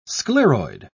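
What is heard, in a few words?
"scleroid", British English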